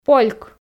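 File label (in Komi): "польк", Komi, female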